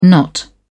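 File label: "knot", English, British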